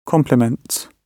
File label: "complements", gb